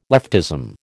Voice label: "leftism", American

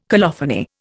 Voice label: "colophony", Indian

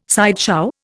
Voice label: "sideshow", Indian

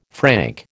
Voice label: "franc", American

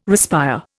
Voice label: "respire", Indian

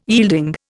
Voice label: "yielding", Indian

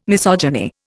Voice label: "misogyny", Indian

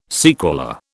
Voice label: "sequela", British

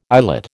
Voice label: "eyelet", American

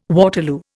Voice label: "Waterloo", Indian

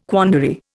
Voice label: "quandary", Indian

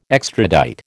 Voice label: "extradite", American

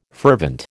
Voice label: "fervent", American

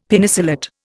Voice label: "Penicillate", Indian; Dictionary

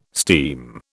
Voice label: "steam", British

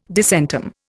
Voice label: "disentomb", Indian

